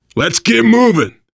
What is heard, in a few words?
move on, voice, biker